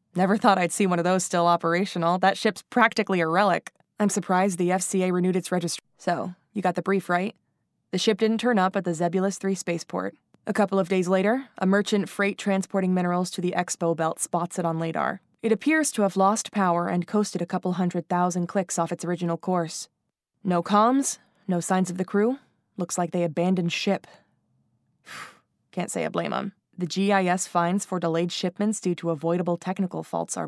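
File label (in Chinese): 外籍女声 角色 美式英语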